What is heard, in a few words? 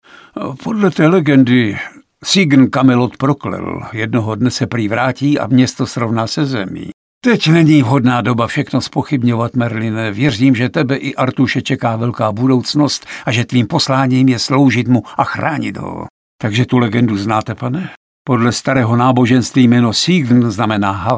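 Male Czech drama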